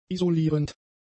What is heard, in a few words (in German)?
"isolierend", german; adjectives